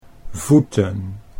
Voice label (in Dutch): "voeten", Dutch